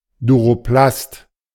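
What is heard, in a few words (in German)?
"Duroplast", German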